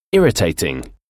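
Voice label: "irritating", English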